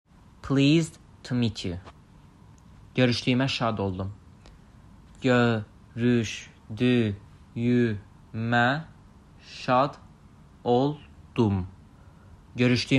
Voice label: Pleased to meet you Görüşdüyümə şad oldum, azerbaijani